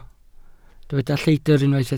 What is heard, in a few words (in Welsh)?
"lleidr" Welsh